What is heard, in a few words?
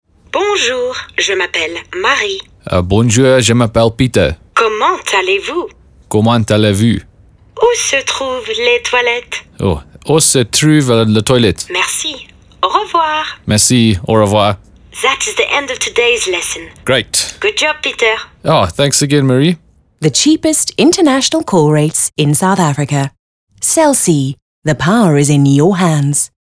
French Radio; Cell C; French Radio Awards